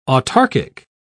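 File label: "autarchic", British English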